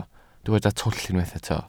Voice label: "twll", Welsh